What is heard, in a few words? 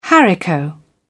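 "haricot bean", British English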